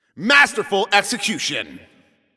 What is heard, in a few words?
Announcer Especially Good Shot